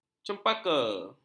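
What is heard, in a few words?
"cempaka", Malay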